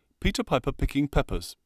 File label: Plosives Card